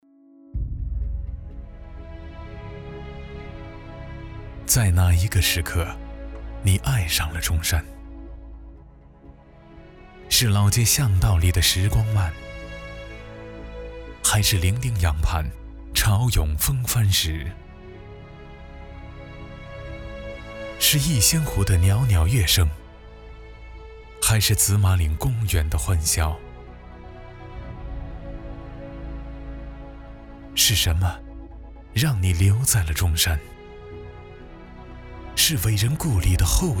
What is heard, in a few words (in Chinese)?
男, 专题; 印象中山